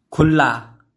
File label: "Khulla", Bhojpuri; Opened